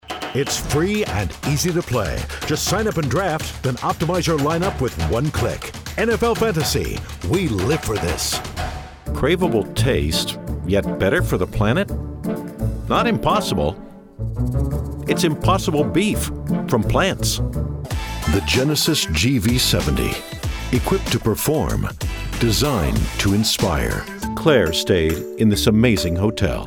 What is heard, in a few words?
Commercial